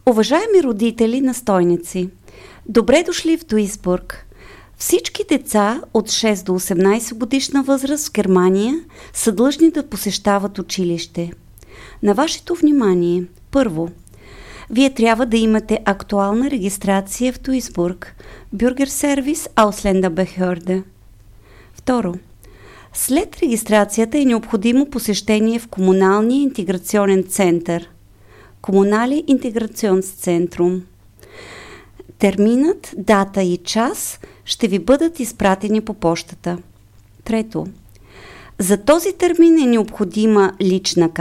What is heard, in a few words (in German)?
Sprachausgabe - Bulgarisch; Seiteneinsteiger